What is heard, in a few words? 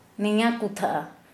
nearby, Kera Mundari